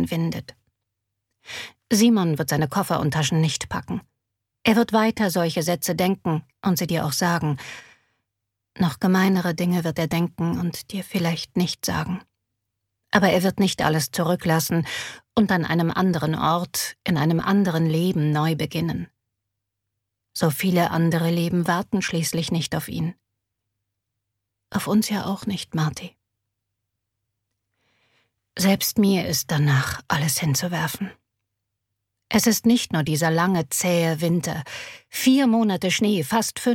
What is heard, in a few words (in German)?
hoerbuch schlafen; LOFT VOICES